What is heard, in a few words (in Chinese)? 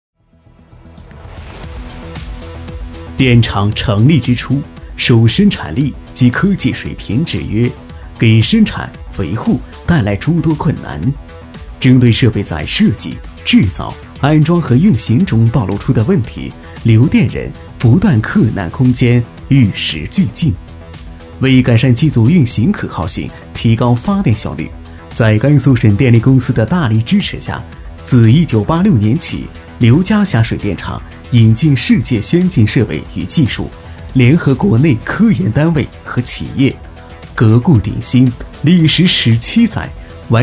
大气专题